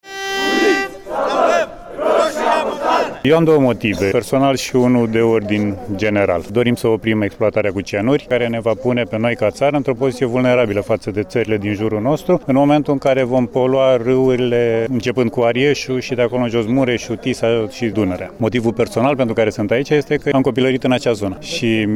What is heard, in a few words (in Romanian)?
vox protestatari